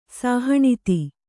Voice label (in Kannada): "ಸಾಹಣಿತಿ", Kannada